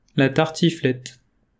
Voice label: "La tartiflette", French

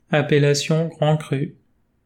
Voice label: Appellations Grands Crus, French

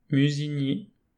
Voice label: "Musigny", French

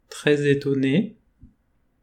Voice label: "tres etonne", French

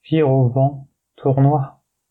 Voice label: Vire au vent tournoie, French

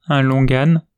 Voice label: "un longane", French

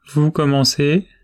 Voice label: Vous commencez, French

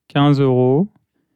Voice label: "15 quinze euros", French

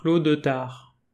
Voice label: "Clos de Tart", French

